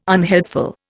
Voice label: "unhelpful", Indian